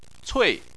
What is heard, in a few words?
"cui4", Chinese